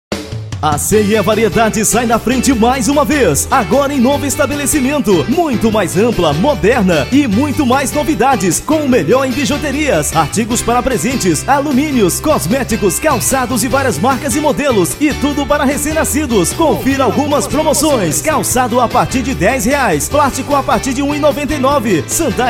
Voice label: spot e variedades, locutor

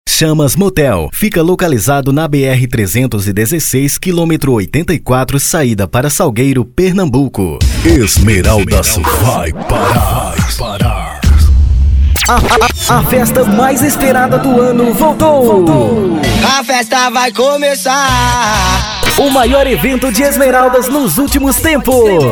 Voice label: banco de vozes locutor publicitario

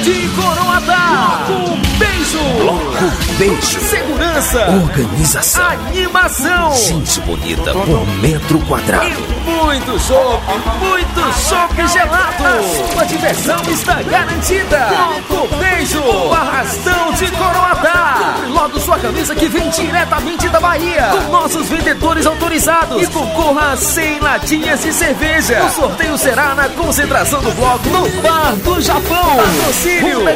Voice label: spot carnaval; carnaval 2008 bloco beijo

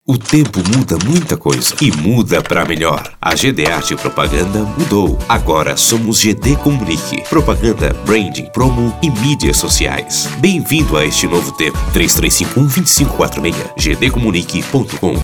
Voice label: spot

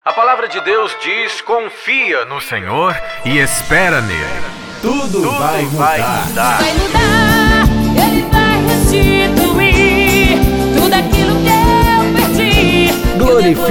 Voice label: spot

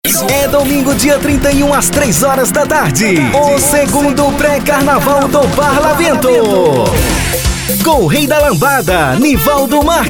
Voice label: banco de vozes locutor offs jingles vinheta

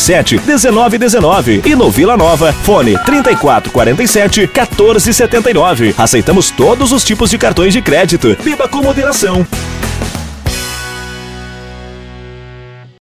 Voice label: VOZ IMPACTO GRAVE vinheta para distribuidora de bebidas chamada spot propaganda; moreira

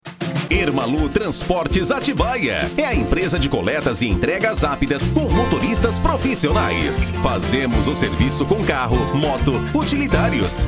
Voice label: comercial; solucoes em audio; ermalul transportes

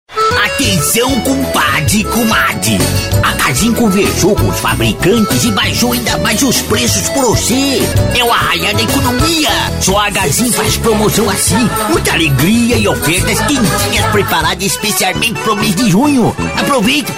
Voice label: spot comercial

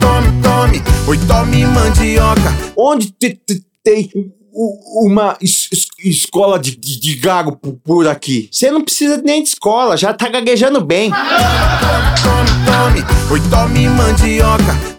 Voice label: spot piada

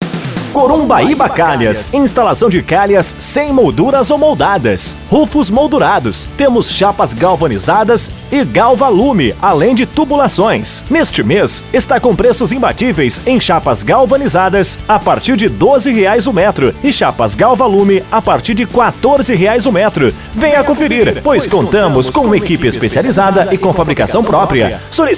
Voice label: VOZ IMPACTO GRAVE vinheta spot chamada comercial propag; para loja de calhas calha; propaganda

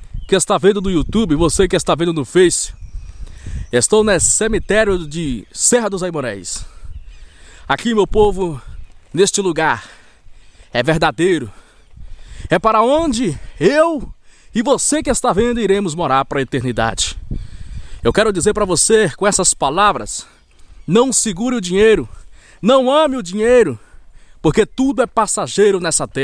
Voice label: locutor de rodeio

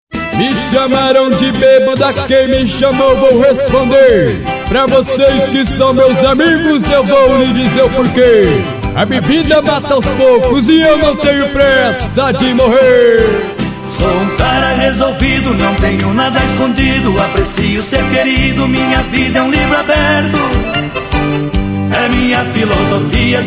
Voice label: locutor verso de rodeio

